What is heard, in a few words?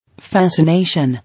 "Fascination", English